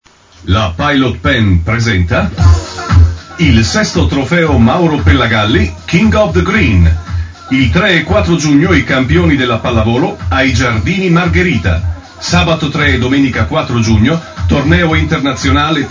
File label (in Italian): spot; Green Volley 6